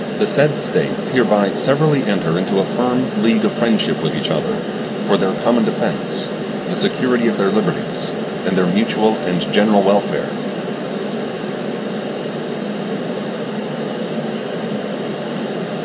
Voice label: swap phases female voice amplitudes male voice phases